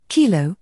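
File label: "k", British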